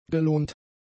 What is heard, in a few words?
"gelohnt", German; adjectives